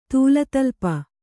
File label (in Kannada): "ತೂಲತಲ್ಪ", Kannada; tūla talpa; noun